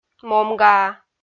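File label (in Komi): "момгаа", Komi, female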